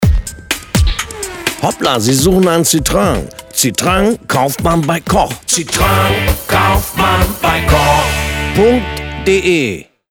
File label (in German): Radiospot; Citroen